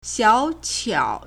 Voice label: "xiao3qiao3", Chinese